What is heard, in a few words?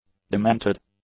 "demented", english; adjectives